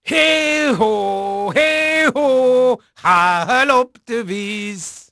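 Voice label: Dutch male, voice; Pirates Of The Caribbean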